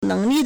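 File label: "neng2li4", Mandarin Chinese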